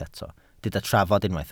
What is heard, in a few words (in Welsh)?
"trafod" Welsh